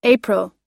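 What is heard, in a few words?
"April" English, us; April – Abril; Datas em Inglês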